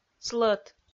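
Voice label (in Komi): "слӧт", Komi, female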